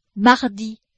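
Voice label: "mardi", French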